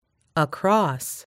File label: "across", US English